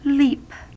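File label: "leap", US English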